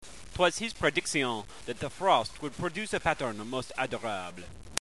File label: "prediction", french